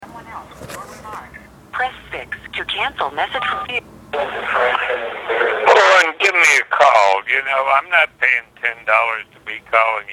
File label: phone msg